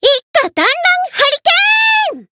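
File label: limit break, Japanese; myungwha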